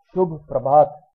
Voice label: good morning hindi